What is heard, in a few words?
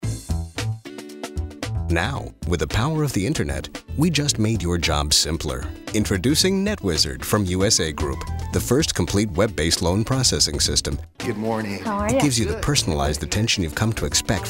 Narr